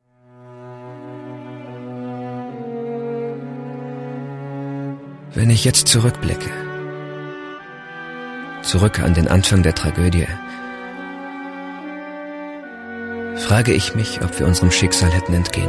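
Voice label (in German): Hörspiel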